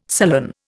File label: "sullen", Indian